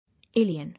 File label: "Alien", English